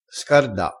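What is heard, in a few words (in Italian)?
"scarda", dialettale, crespinese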